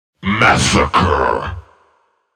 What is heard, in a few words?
kills, announcer, male